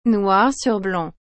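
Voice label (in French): "noir sur blanc", French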